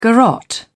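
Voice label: "garrotte", British English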